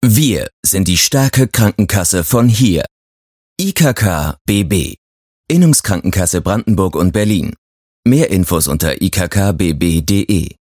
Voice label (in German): werbung